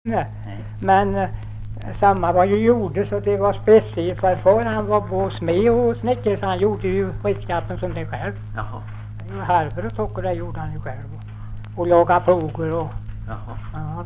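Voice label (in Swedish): Visnums-Kil Värmland, dialekter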